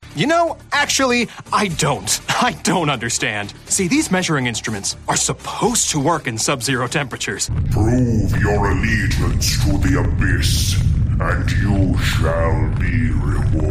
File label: Animation